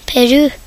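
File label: "Peru", Norwegian; gcompris; geography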